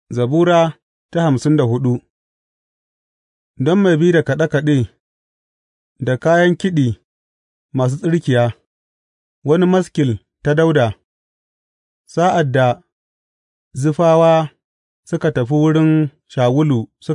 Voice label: hausa bible drama, psalms; hausa bsn nt drama